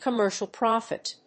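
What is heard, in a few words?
"commercial profit", English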